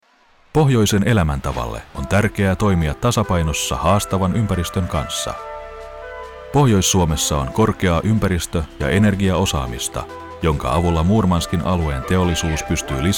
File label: narrative commercial radio imaging